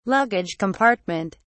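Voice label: "luggage compartment", British English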